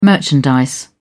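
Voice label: "merchandise", British English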